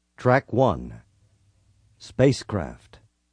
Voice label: spacecraft, zany sfx announcer